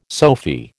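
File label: "Sophy", American English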